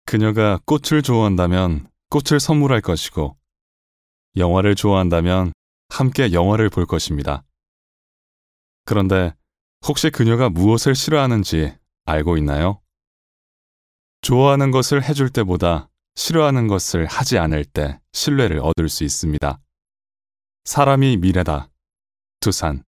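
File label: Korean male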